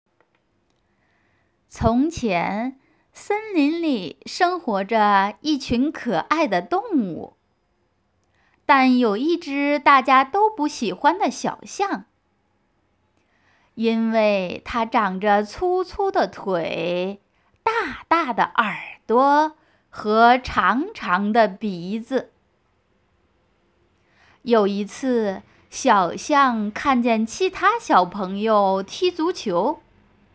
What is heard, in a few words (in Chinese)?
儿童 故事